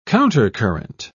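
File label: "countercurrent", British English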